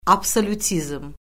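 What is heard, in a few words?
"absolyutizm", Azerbaijani; Orfoqrafiya Lüğəti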